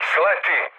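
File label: Serbian bailout mood med, speech; War Thunder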